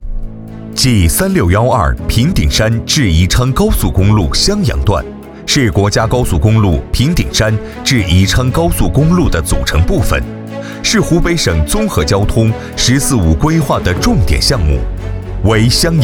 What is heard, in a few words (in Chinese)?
男 工程解说 高速公路